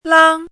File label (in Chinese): "lang1", 汉字语音; chinese-voice